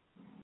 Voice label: call recording